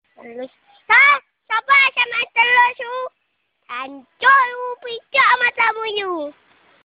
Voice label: funny saying; Kata-Kata Lucu